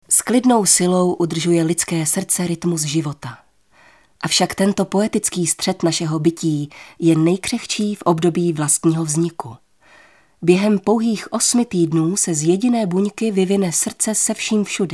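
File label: Female Czech documentary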